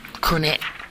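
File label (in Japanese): "kune", 南山形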